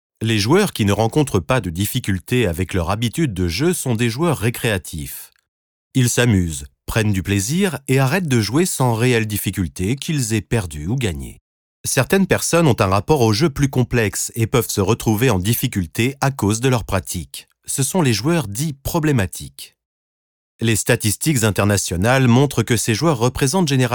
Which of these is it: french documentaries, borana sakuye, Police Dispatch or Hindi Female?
french documentaries